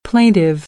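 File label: "plaintive", American English; AmericanBritish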